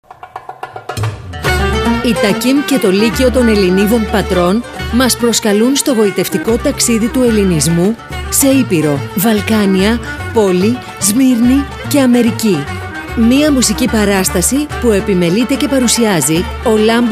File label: radio spot; TAKIK; LYCEUM of Hellenic Women Of Patras